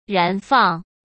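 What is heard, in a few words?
"燃放", Mandarin Chinese; hsk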